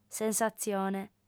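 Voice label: "sensatzione", Sardinian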